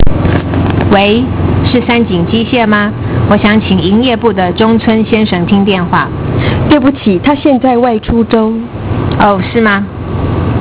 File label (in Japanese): Chugokugo